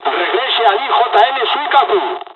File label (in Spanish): evac, dialogs spanish; War Thunder